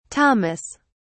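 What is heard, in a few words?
"thomas", British English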